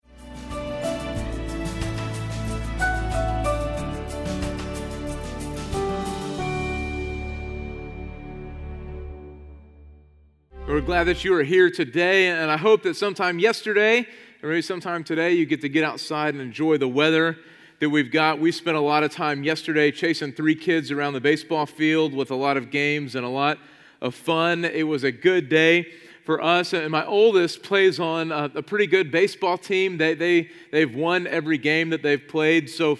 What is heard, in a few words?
Sermon English